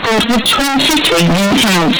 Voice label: "corre", italia; Corre Francy, c'è nota

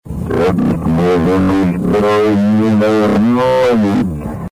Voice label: calmi

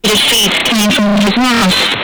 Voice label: "era si", italia

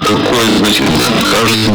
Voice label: "e qua invece", italia

